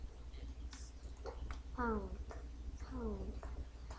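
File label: "pump", spoken word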